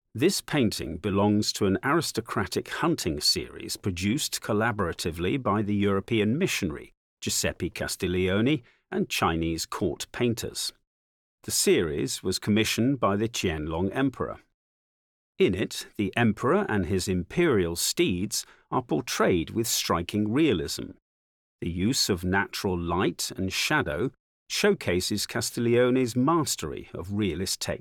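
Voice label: English, audio guide; The Qianlong Emperor holding an arrow; Giuseppe Castiglione (1688–1766); Heavenly Horse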